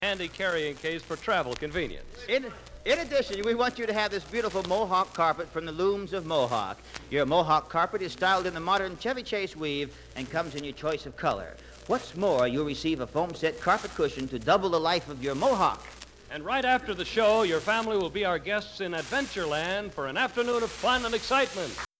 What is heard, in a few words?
Commercial Mohawk Carpet